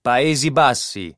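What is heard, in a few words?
"Paesi Bassi", Italian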